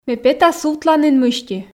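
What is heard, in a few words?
Komi, female; ме пета сувтланін мысти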